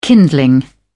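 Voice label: "kindling", British English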